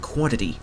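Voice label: "quantity", Canadian English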